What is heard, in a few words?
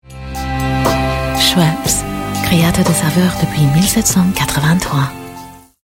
commercial fr; schweppes1783